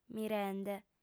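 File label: "mirende", female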